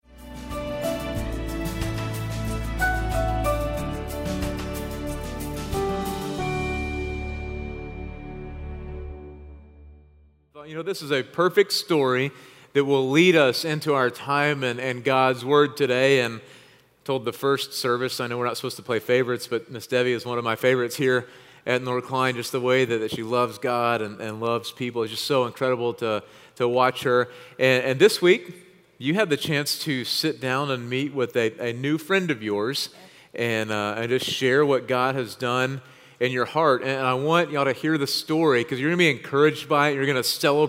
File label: Sermon English